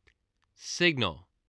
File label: "signal", English